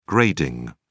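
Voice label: "grading", English, British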